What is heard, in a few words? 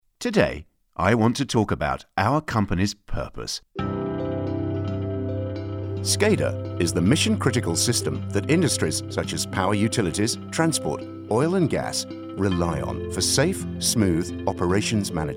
Corporate